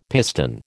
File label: "piston", American